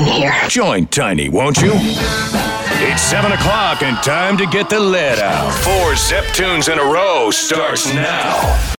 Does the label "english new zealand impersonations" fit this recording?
No